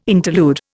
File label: "interlude", Indian